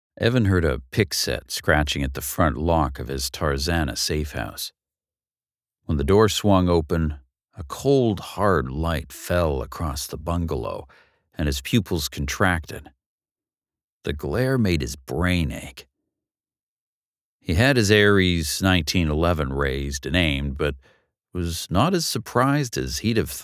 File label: Thriller Audiobook Sample